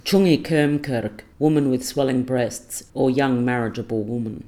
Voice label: "tyunggi-kurm-kurrk" woman with swelling breasts

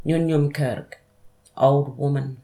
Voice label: "ngunyim-kurrk" old-women

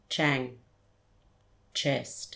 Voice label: "tyang" chest

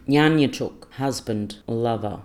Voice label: "nganyityuk" husband lover

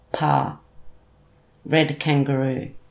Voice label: "parra" red-kangaroo

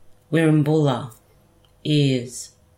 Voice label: "wirimbula" ears

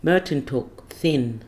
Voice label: "mertintuk" thin

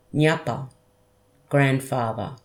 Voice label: "ngapa" grandfather

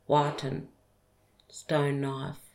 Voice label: "watən" stone knife

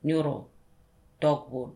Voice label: "ngurrel" dogwood tree

